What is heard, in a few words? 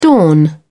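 "dawn", English, British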